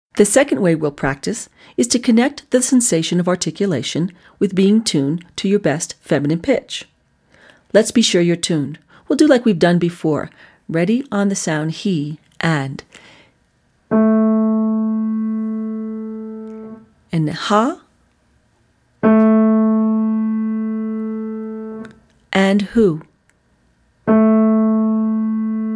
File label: Voice Fem